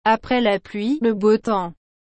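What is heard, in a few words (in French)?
"Après la pluie le beau temps", French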